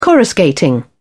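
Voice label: "coruscating", British English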